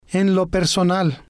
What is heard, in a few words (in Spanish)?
"en lo personal", Spanish